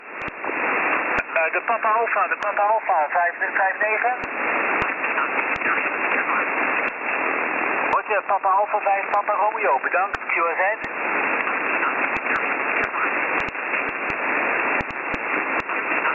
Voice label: 20m ssb, pitcairn island